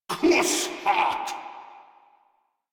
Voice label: Player Spotted, Grineer Male; Warframe